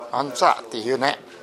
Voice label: "antua", minami yamagata

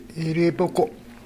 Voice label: "irepoko", minami yamagata

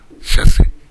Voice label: "shasue", minami yamagata

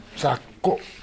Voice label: "zakko", minami yamagata

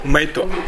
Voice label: "maeto", minami yamagata

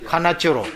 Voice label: "kanachoro", minami yamagata

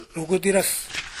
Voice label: "roguderasu", minami yamagata

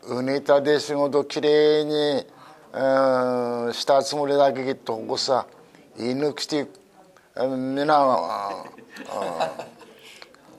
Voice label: goshageru, minami yamagata

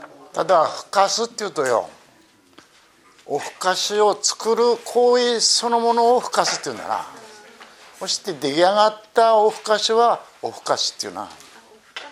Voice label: fukasu, minami yamagata

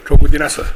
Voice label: "roguderasu", minami yamagata

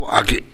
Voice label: "age", minami yamagata

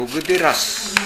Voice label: "roguderasu", minami yamagata

